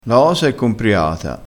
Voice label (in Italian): "compriato", crespinese